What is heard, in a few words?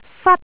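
"fat1", Cantonese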